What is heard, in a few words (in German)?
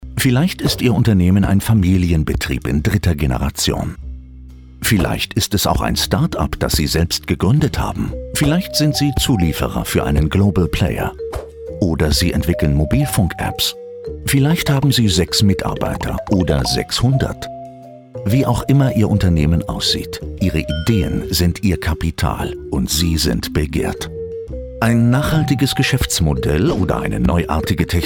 Off Erklaerfilm